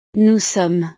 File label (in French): "nous sommes", frances